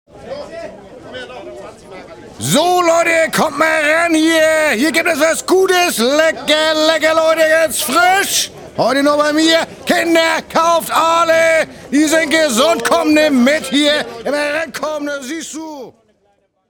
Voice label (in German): norddeutsch; Norddeutscher